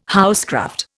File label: "housecraft", Indian